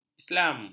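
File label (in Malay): "islam", Malay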